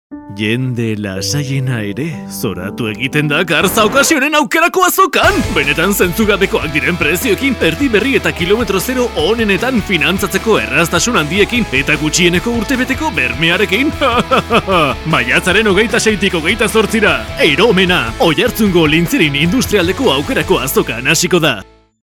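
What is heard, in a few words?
comercial, Basque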